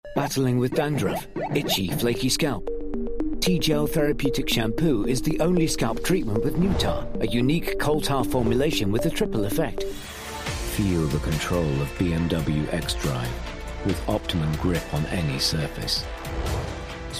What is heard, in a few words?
English British commercial